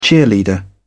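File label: "cheerleader", British English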